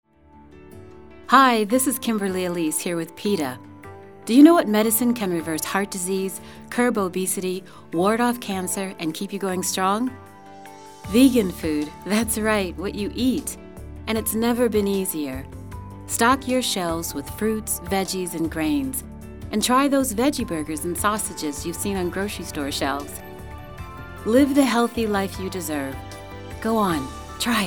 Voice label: radio psa; kimberly elise